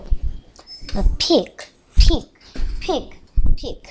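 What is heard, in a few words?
"pick", spoken word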